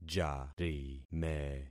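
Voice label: "jarime", concatenated syllables; Affixing experiment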